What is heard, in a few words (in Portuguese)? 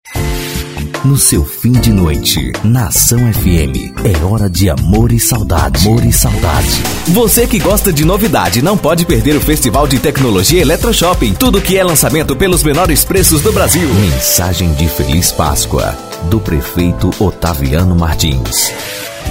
vozes offs